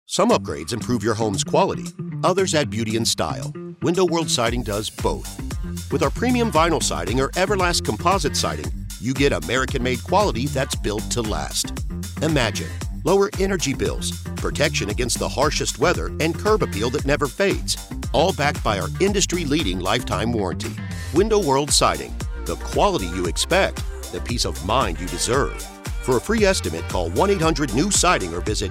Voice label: Conversational male voice, Radio Commercials, Clear And Confident Radio Spot Voice; This Demo Features A Clear And Confident Radio Spot Voice Designed For; Window World LA TX